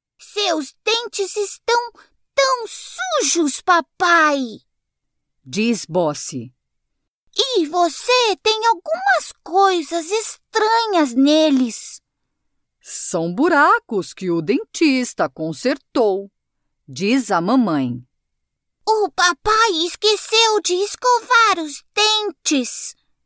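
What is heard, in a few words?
PT Narrative children, Portuguese; GoLocalise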